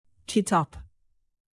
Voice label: "kitap", Turkish native